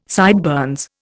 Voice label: "sideburns", Indian